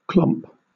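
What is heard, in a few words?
"clomp", British English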